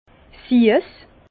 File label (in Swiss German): "siess", Bas Rhin; vin; sucré